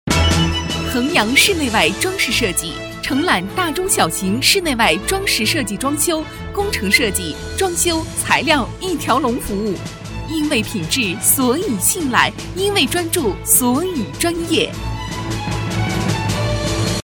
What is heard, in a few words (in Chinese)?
广告 促销 装修 激情